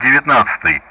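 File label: Speech Russian; DCS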